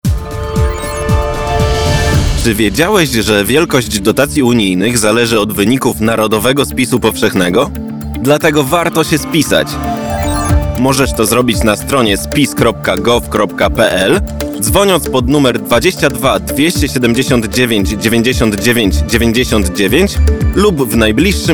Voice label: radio spot